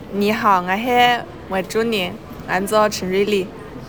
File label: Hakka, dialects; Guangdong Mei